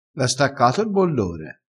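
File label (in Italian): "bollore", vocabolario dialettale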